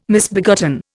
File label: "misbegotten", Indian